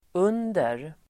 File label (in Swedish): "under", Swedish